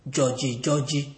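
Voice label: "judgy judgy", Nigerian Pidgin, male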